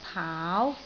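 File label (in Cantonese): "paau2", Cantonese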